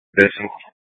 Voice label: Phx PD Fire Dispatch; RWC